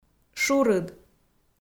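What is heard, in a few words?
"шурыд", Komi, female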